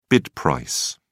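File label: "bid price", British English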